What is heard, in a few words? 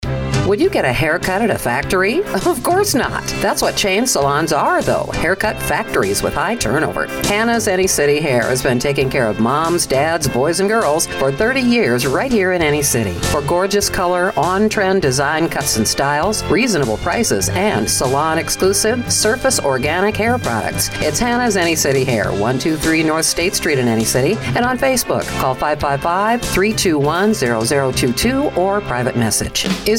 Female, English, American; Commercial Demo; Country USA; voice talent and copywriter